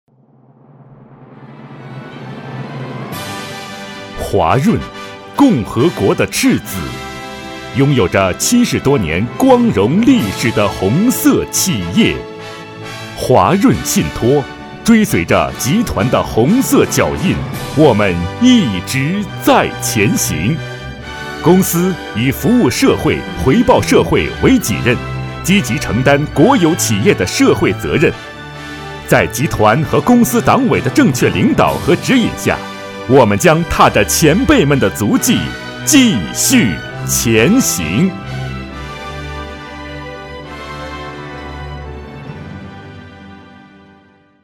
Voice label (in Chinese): 男 专题, 国语男声配音; 华润信托